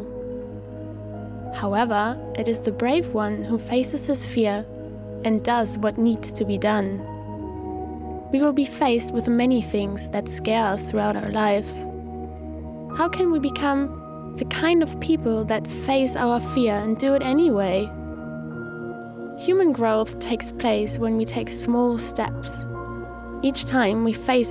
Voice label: poem courage english slow delicate conversational natural